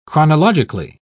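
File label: "chronologically", British English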